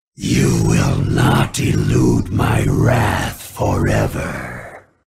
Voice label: escaped, grunt sounds